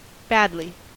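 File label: "badly", American English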